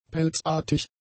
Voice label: "pelzartig", German; adjectives

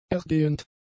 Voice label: "ergehend", German; adjectives

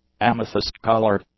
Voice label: "amethyst-coloured", English; adjectives

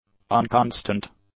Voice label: "unconstant", English; adjectives

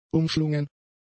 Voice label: "umschlungen", German; adjectives

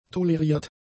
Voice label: "toleriert", German; adjectives

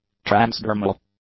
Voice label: "transdermal", English; adjectives

